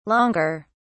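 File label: "longer", British English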